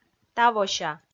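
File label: "тавося", Komi, female; audio dict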